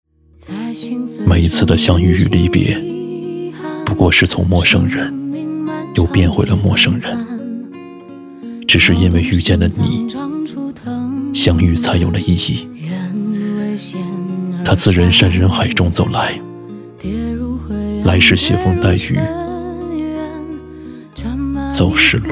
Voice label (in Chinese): 男 独白; 他自人山人海中来